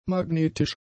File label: "magnetisch", German; adjectives